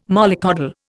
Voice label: "mollycoddle", Indian